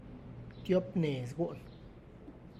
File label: two pairs of bulls, Tlacochahuaya Zapotec; (Spanish) dos yuntas; tiop nez gu'n